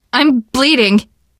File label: getting revived, teen girl; voice; source engine; left 4 dead 2; survivor